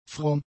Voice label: "fromm", German; adjectives